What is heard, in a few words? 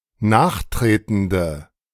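"nachtretende", German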